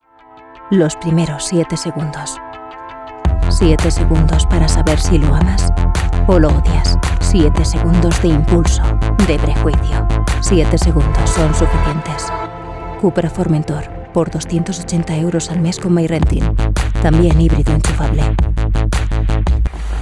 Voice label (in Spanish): TONO GRAVE; 7SEGUNDOS